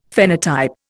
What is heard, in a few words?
"phenotype", Indian; Dictionary